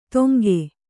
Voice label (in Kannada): "ತೊಂಗೆ", Kannada